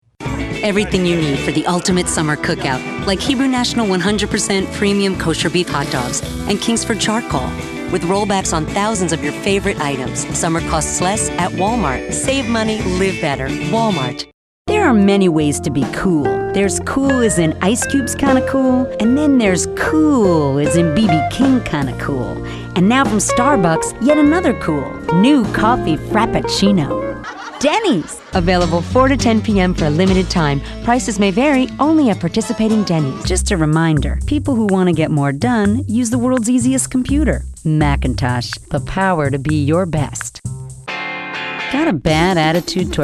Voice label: VOX Commercial